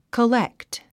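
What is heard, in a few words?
"collect", English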